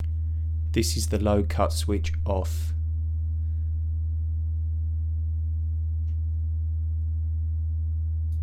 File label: lowcut off